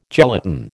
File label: "gelatin", American